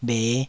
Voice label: "bhe5", taiwanese